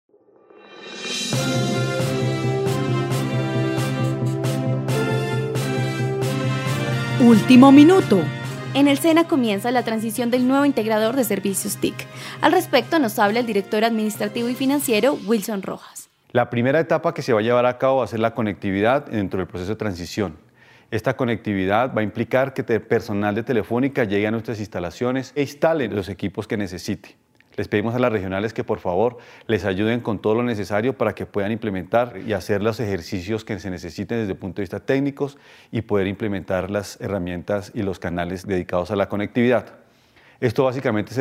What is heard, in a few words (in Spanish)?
Transicion telefonica